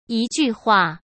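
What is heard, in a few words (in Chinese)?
"一句话", Mandarin Chinese; chinese flashcards; hsk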